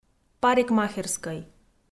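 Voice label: "парикмахерскӧй", Komi, female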